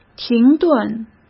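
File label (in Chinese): "停顿", Mandarin Chinese; hsk; chinese-flashcards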